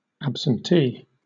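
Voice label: "absentee", British English; pronunciation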